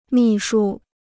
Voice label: "秘书", Chinese

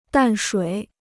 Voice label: "淡水", Chinese

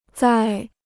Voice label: "再", Chinese

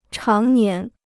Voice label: "常年", Chinese

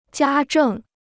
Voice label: "家政", Chinese

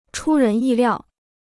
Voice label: "出人意料", Chinese

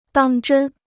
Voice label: "当真", Chinese

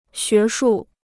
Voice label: "学术", Chinese

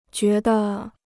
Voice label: "觉得", Chinese

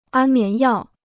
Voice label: "安眠药", Chinese

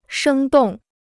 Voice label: "生动", Chinese

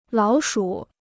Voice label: "老鼠", Chinese

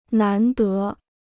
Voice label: "难得", Chinese

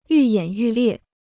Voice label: "愈演愈烈", Chinese

